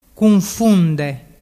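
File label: "cunfunde", Vernazzese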